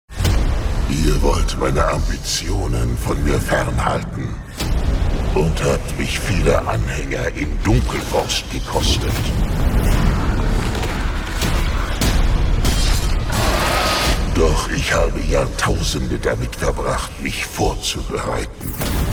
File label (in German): Trickstimme evil; Muttersprache Deutsch